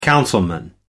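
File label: "councilman", British English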